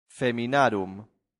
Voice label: "feminarum", latin; santillana